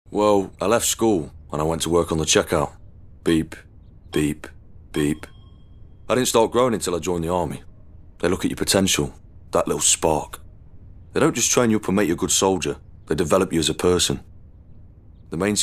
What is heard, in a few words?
COMMERCIAL; Army